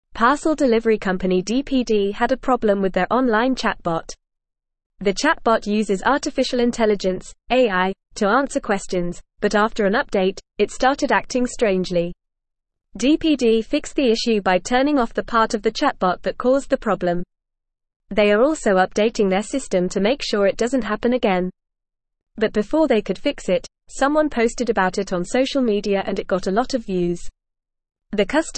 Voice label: English Upper Intermediate FAST Reading; English Newsroom

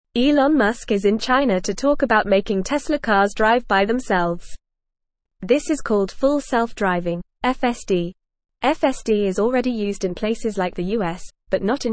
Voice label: English FAST Reading; Elon Musk in China to Make Tesla Cars Drive Themselves

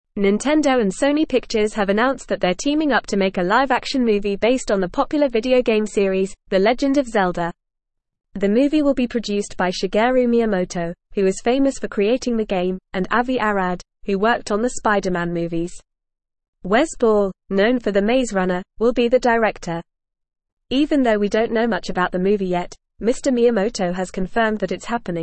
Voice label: English Upper Intermediate FAST Reading; Nintendo and Sony to Produce Live Action Zelda Film; English Newsroom

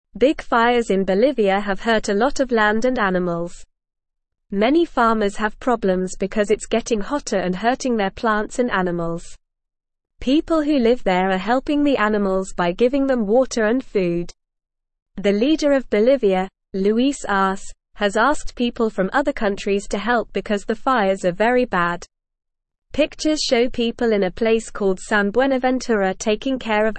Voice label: English Lower Intermediate NORMAL Reading; Big Fires in Bolivia Hurt Land and Animals

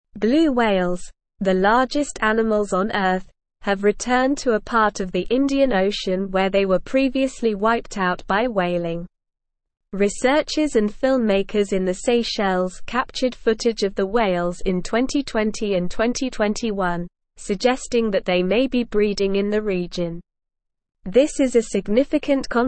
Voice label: English Advanced SLOW Reading; English Newsroom; Blue Whales Return to Seychelles a Conservation Win

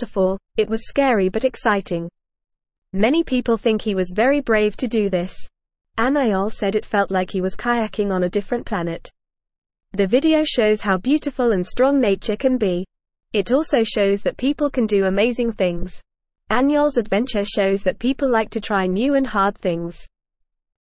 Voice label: English Lower Intermediate FAST Reading; English Newsroom; Man rides boat down big ice waterfall

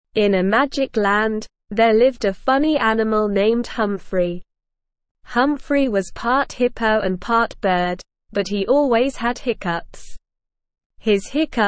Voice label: ESL Short Stories for Kids Beginner SLOW Reading; The Hiccuping Hippogriff

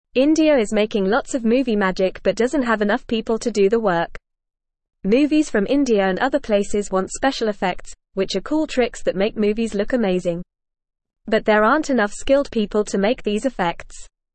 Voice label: English FAST Reading; India Needs More People to Make Movie Magic

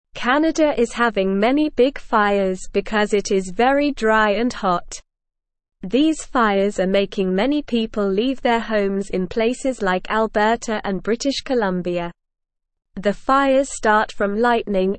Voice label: English SLOW Reading; Canadas Big Fires People Leaving Their Homes

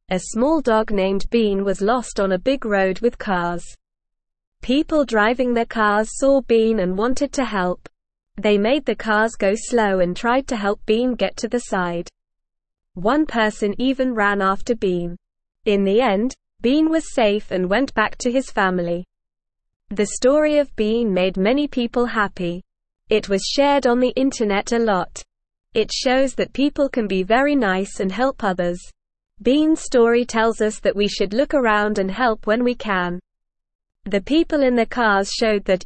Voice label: Beginner NORMAL Reading, English; English Newsroom

